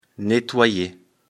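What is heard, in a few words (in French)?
"nettoyer", French; afrikalan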